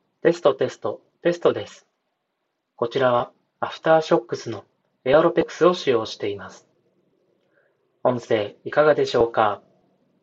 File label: AEROPEX aftershokz high